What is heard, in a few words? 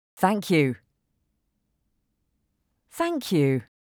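Thank you, English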